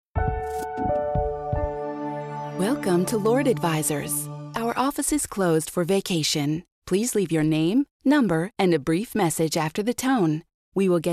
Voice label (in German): VOICEMAIL ANSAGE VACATION